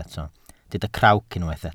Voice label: "crawc", Welsh